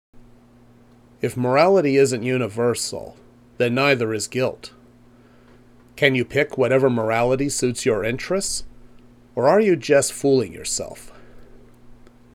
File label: Voiceover